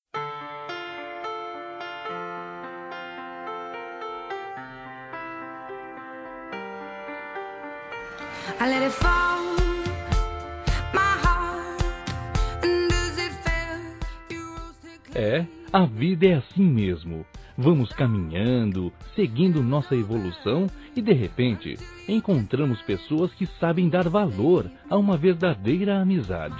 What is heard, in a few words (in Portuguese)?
Amiga Masculino, Telemensagens Saudades